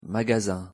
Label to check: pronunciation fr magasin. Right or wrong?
Right